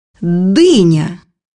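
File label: "melon", Russian; bukvar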